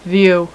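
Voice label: "view", female; voice; Web Clip Empire 150,000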